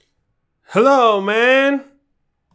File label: Hello Man